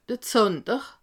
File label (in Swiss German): "zander", alsace